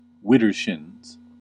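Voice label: "widdershins", American English; pronunciations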